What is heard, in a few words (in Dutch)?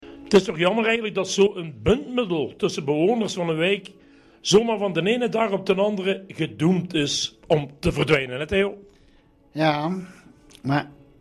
radio sjabbert, dialect